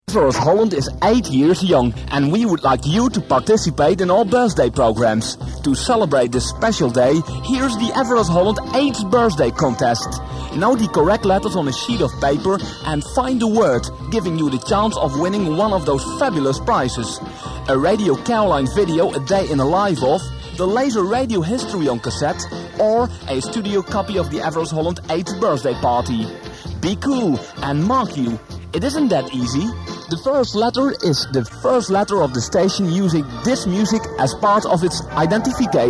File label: Promo; 8th Birthday competition 1988; Early Bird